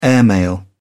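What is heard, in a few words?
"airmail", British English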